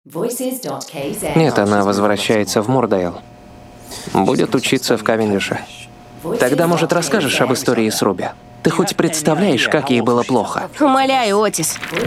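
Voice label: dubbing Russian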